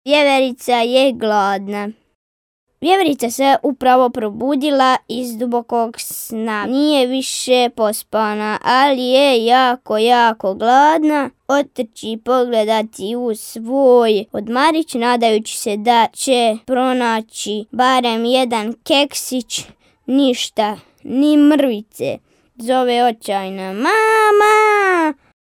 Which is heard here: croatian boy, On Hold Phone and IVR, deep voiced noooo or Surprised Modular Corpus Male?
croatian boy